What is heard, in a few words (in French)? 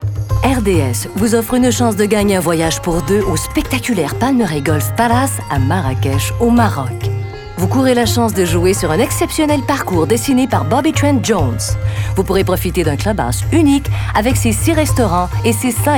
Voix Narration